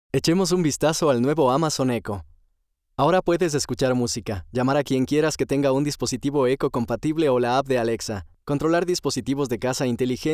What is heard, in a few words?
Modern Inspiring; LOFT VOICES